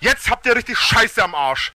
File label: angry; spackenmobs